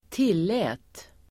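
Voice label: "tillät", Swedish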